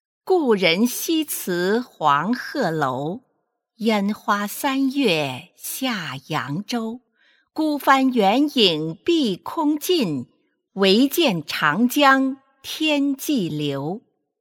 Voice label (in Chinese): 诗朗诵